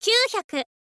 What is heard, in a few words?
"kyuuhyaku", info girl voice